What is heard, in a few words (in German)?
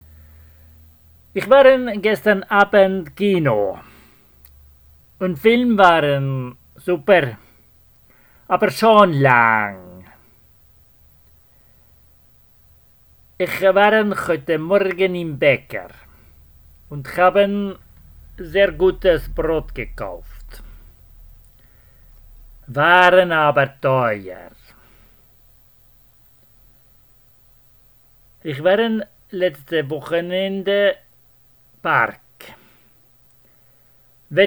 Sprachprobe osteuropäisch